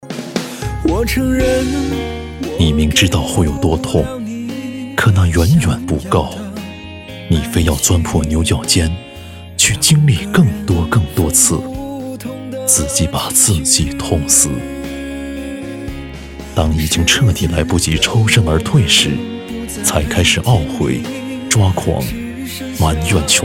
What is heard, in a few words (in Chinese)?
男 电视片花; 过期爱情电视片花